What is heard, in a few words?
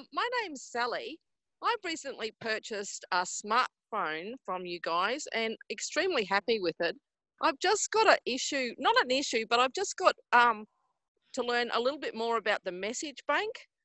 call stereo native